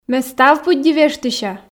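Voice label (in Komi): ме став пыдди вештыся, Komi, female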